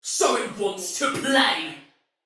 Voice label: Sight Lost Shout, Female, Soldier; Dialog; Barks; Battle; Sinecure

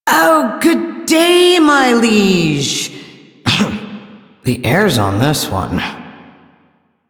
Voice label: Sweeper en, ambient dialog; Warframe; Duviri

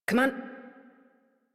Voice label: Horse Start Adult; Warframe; Duviri

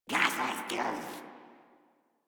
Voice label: en, Dialog Barks Battle; Patrol; Warframe; Grineer Wolf Gangster

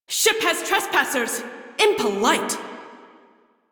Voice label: Crew Enemy Spot Female en; Railjack Crew; Cephalon Suda

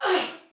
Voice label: Attack Soldier Female, Battle Barks; Sinecure

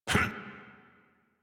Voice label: Adult Operator Jump, en; Warframe; New War

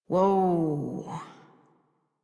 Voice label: Horse Stop Walk Adult; Warframe; Duviri

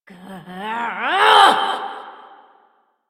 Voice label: Frustration

